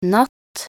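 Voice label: "natt", Norwegian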